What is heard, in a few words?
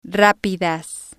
"rapidas", Spanish